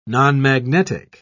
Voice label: "nonmagnetic", en uk

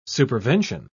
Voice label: "supervention", en uk

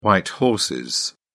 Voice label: "white horses", uk

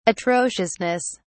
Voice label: atrociousness, uk

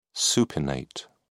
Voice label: "supinate", en uk

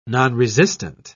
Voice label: "nonresistant", en uk

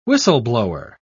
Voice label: "whistleblower", uk

